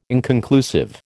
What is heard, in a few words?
"inconclusive", American